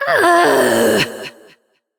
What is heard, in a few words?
Frustration; Evil Twin C; Warframe; Entrati Doppelganger; Battle Barks